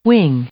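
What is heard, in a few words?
"wing", English, female; noun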